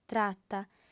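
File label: "trata", Sardinian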